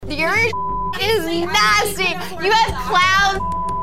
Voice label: clown